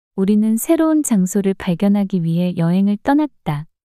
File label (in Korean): 우리는 새로운 장소를 발견하기 위해 여행을 떠났다 문장 여자